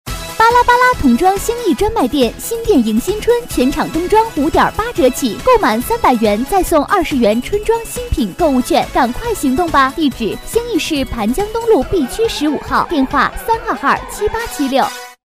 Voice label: 广告 促销 甜美, 女国; 巴拉巴拉

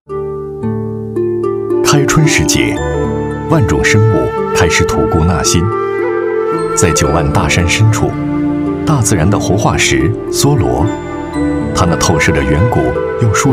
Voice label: 纪录片, 仿舌尖感觉

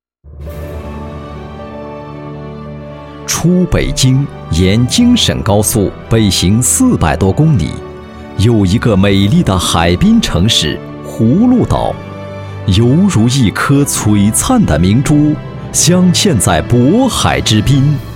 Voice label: 大气; 企业; 中核北方铀业有限公司

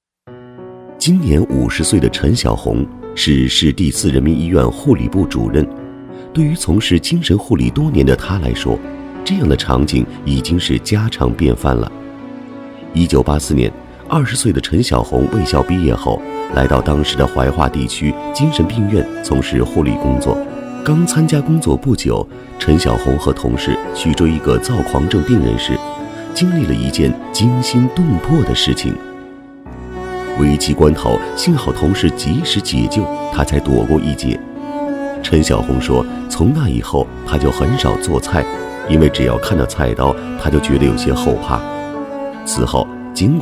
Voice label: 专题 人物 人民医院人物介绍, 男

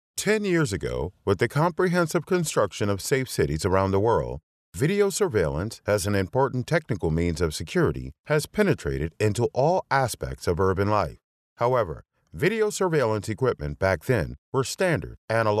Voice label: 外籍男英 外语 美式英语 新样 沉稳

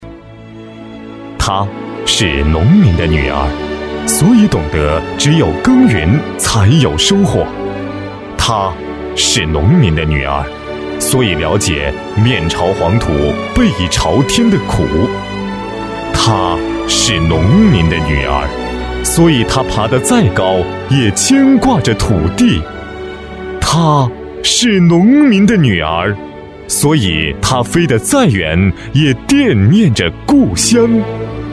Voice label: 男国 专题 人物; 农民的女儿

